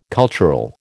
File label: "cultural", American